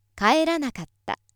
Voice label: female